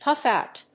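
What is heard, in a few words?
"puff out", English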